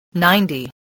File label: "ninety", voice